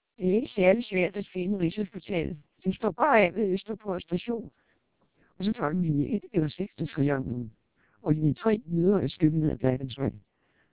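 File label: TWELP 400 bps Vocoder, Danish speech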